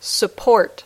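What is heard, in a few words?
"support", English word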